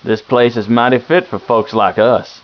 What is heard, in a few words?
man question